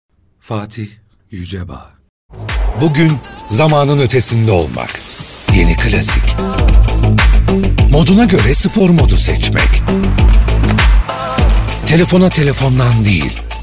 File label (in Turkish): turkce erkek